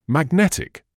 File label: "magnetic", English